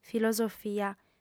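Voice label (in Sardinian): "filosofia", female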